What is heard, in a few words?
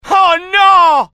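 fear grenade, IT; mohaa; dialogue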